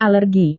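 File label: "alergi", Indonesian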